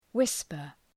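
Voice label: "whisper", English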